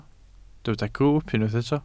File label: "grwwp", Welsh